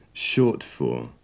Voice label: "short for", English